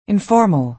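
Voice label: "informal", American English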